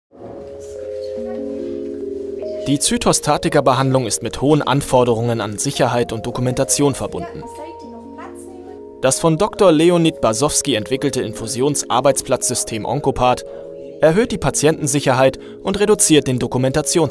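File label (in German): Kommentar, Neutral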